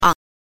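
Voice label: "ang", Mandarin Chinese pinyin, neutral tone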